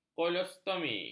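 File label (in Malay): "kolostomi", Malay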